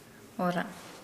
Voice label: Nukuoro; be freed

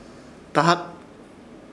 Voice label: "Dahat", Ishir Chamacoco; hervir

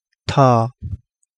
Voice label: Kapingamarangi; sabre squirrelfish

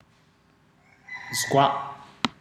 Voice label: "hear", ndau

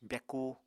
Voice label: "byakú", Apatani

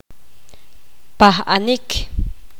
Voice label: poqomam; estar de pie

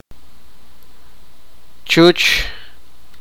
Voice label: sipakapense; mother

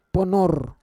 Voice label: "ponor", Roon

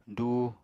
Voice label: "duu", Apatani

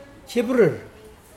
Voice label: clumsy, Mokilese

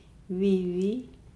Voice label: "female genital area vivi", Nukuoro

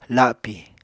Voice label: "lapi", Apatani

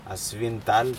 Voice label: break taro, Aneityum

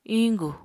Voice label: "iñgu", Apatani

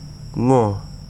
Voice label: "give", Wahgi; lae unitech

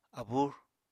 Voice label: "abúr", Apatani

